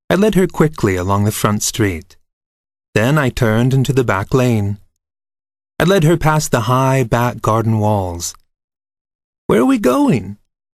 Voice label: voice over general fiction